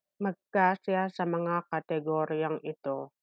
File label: Tagalog; fit into these categories - magkasya sa mga kategoryang ito